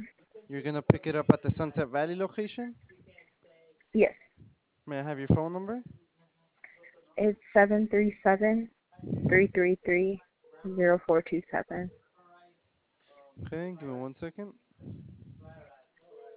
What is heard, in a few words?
Ordering Call